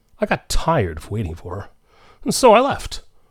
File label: line acted; energy; actingup